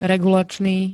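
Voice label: "regulačný", Slovak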